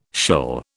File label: "shill", British